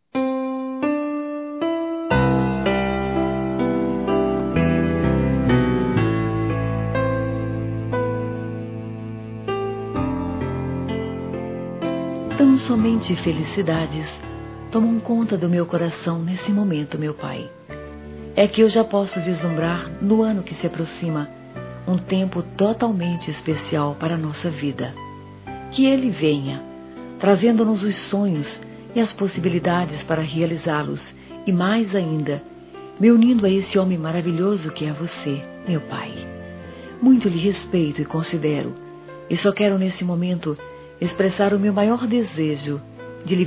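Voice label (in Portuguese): ANO NOVO P PAI, Feminino; Telemensagens; Natal e Ano novo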